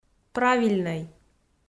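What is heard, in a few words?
"правильнӧй", Komi, female; kpv audio dict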